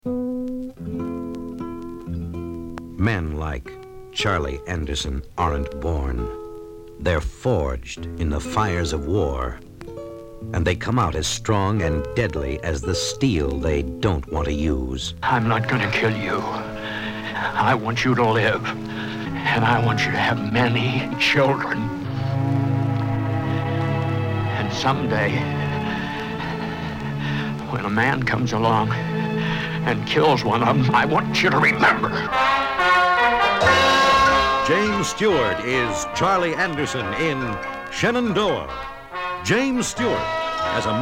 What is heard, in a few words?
Radio Spot; Shenandoah